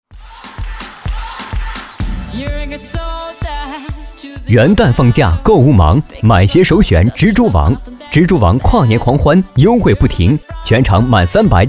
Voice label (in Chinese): 男 广告 促销